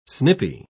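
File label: "snipy", British English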